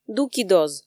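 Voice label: "дуки доз", Komi, female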